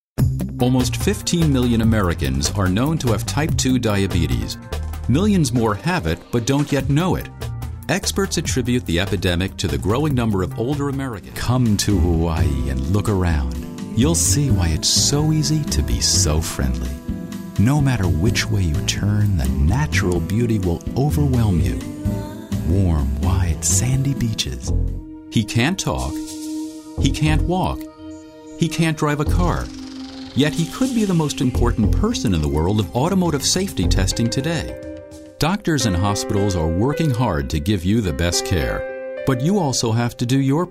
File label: Narration